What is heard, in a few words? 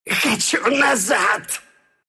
death, ru; Slark